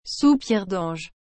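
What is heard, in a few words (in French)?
"soupir dange", French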